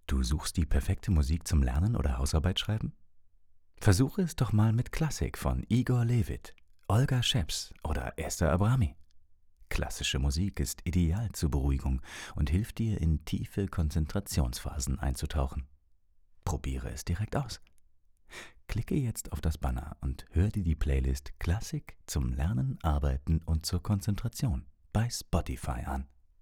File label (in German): Voicesample Klassik Ads; Spotify